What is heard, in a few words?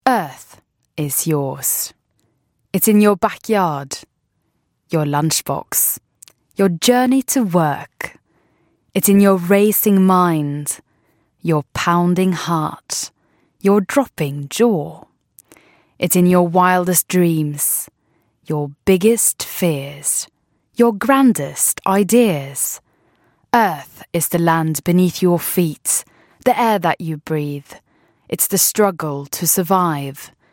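Norwegian English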